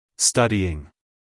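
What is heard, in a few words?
"studying", American English, male; everyone-can-use-english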